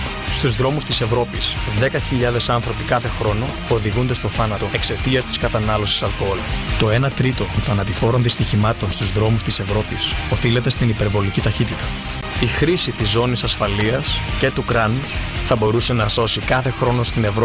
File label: Greek